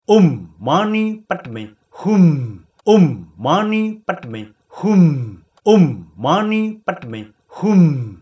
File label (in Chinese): 六字大明咒(直誦); 四臂觀音; 又稱觀世音菩薩心咒